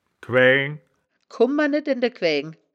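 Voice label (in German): "quaeaeng", wittlicher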